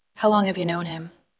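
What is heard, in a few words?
Voice Acting es Female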